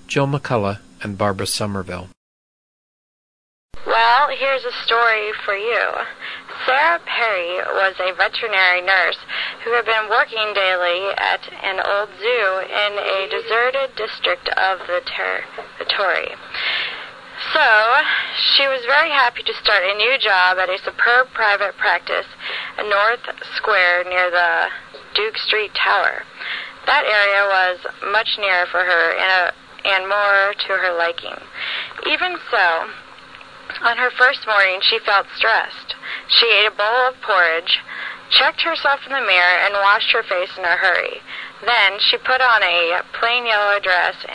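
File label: ohio, International Dialects of English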